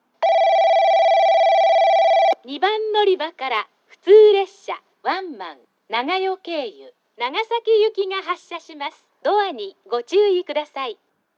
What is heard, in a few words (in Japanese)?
2番のりば発車放送; 普通ワンマン・長与経由 長崎行き; ハウステンボス